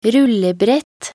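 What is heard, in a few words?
"rullebrett", Norwegian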